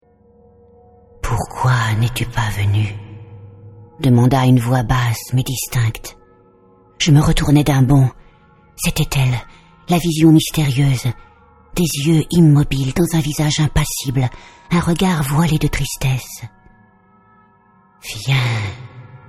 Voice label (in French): Livre Audio; Tourgueniev